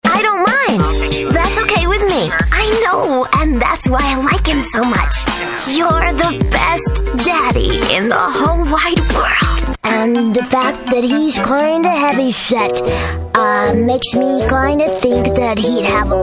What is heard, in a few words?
Character, characters female